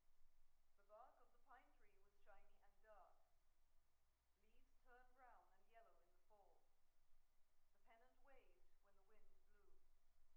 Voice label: female voice